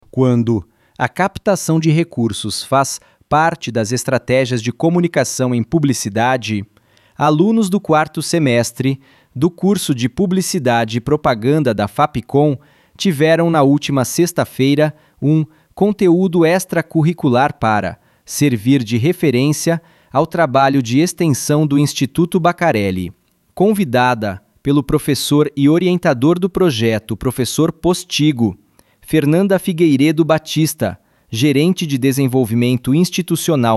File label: voiceover; voiceover generator; freepik